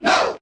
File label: "no" group of men; expletives